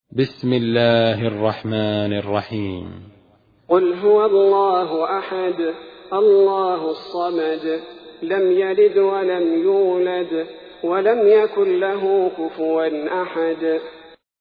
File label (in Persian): ترتیل سوره اخلاص; الثبیتی